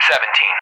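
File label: Speech, English, Numbers, 17; DCS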